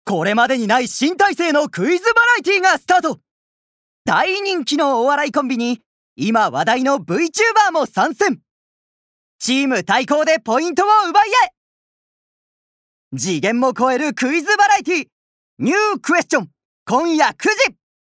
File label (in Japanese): ナレーション